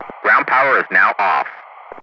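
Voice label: "ground power is now off", English, Ground Crew; DCS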